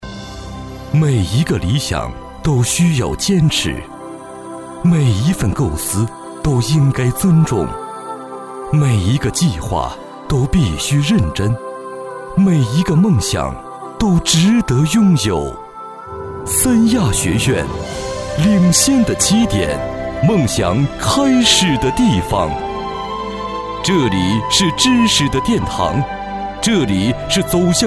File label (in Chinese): 男 专题 学校宣传片